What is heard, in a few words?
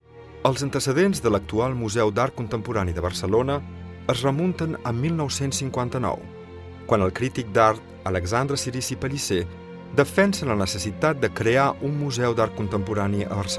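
Catalan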